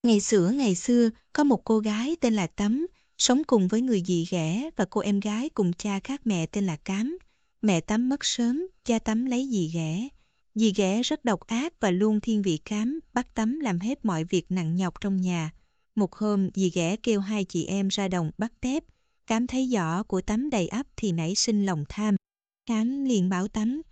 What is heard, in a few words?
storytelling